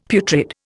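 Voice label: "putrid", Indian English; Dictionary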